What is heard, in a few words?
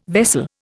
"vessel", Indian